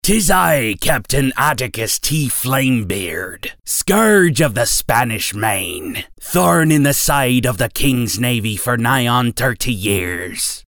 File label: Scurvy Pirate Old Sea Captain